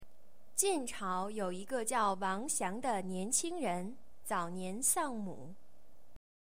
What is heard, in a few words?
Mandarin Chinese